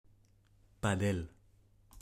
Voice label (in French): Padel Hispano, prononciation